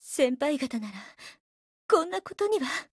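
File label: RETREAT, Japanese; CM901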